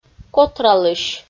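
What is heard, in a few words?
"котралысь", Komi, female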